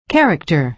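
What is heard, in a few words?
"character", American English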